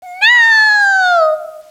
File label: "nooo", Echo inside caves, underground; DS; ML BOWSERSINSIDE; Peach